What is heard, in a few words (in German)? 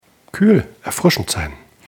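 "erfrischend", German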